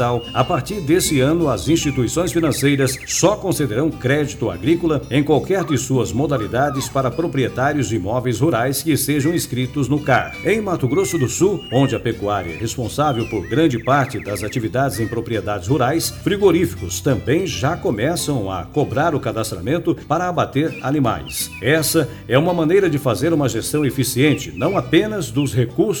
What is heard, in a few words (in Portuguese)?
Spot, Cadastro Ambiental Rural; CAR